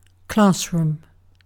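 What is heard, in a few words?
"classroom", British English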